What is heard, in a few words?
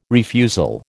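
"refusal", American English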